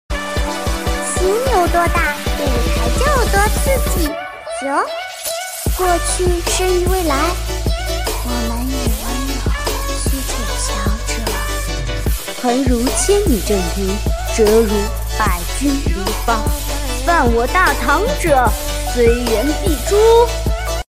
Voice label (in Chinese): 一人多角; 一人多角蔡文姬瑶瑶上官婉儿武则天